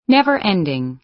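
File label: "never-ending", American English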